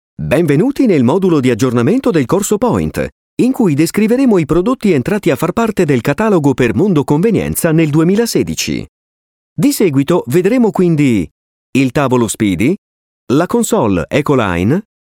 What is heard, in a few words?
italian voice over male elearning